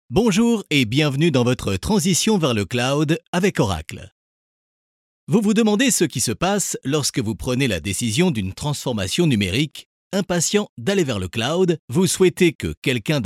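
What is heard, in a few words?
French, male, elearning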